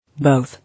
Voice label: "Both", English